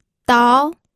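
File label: "dao", pinyin, second tone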